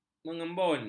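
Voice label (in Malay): "mengembun", Malay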